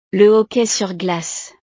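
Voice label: "le hockey sur glace", French; deportes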